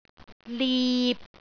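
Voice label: "lip6", Cantonese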